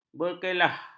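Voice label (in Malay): "berkekalan", Malay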